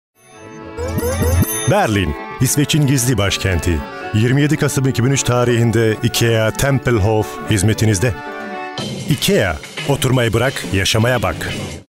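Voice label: Turkish, Werbung Freundlich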